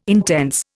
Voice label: "intense", Indian